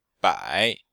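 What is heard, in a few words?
"bai3", Mandarin Chinese; Cours de Chinois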